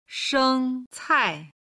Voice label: "sheng cai", Chinese